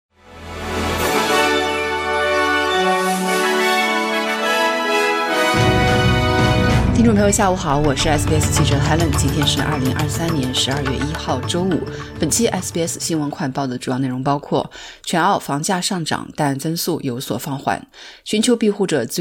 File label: mandarin newsflash; 11月全澳房价持续上涨 中位价逾75万澳元; SBS Chinese; SBS新闻快报